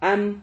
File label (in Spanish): "ham", Silabas